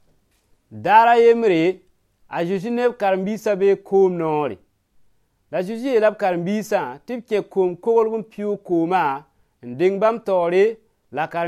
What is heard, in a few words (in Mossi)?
Mossi Moore; Jésus marche sur l’eau; Jesus Walks on Water